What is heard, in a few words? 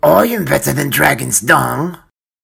orc boy